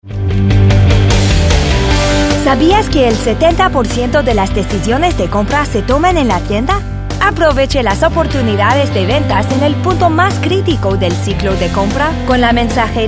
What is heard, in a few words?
Spanish